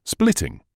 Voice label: "splitting", English